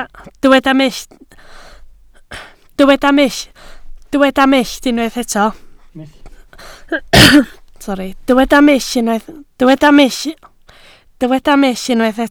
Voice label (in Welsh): "mull", Welsh